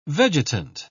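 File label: "vegetant", British English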